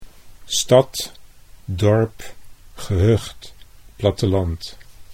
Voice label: "stad dorp", Dutch

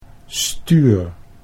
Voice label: "stuur", Dutch

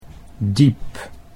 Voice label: "diep", Dutch

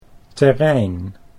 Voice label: "terrein", Dutch

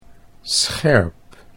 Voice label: "scherp", Dutch

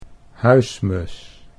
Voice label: "huismus", Dutch

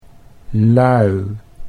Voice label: "lui", Dutch

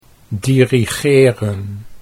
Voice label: "dirigeren", Dutch

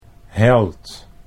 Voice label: "held", Dutch

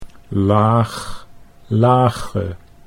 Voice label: "laag lage", Dutch

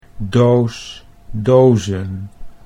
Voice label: "doos dozen", Dutch

